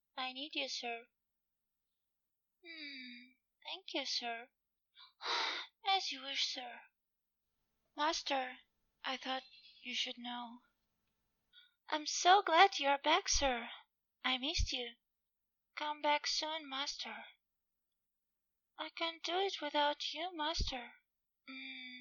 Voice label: Digital Sub's Voice; Turn your PC Audio Alerts into a Digital Sub's Voice